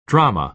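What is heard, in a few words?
"drama", American English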